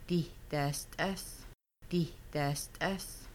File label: I will fry chicken, Kaska; Making Bannock